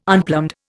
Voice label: "unplumbed", Indian